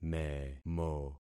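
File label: "memo", concatenated syllables; Affixing experiment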